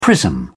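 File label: "prism", British English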